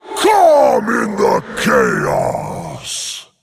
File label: Male Faceless Play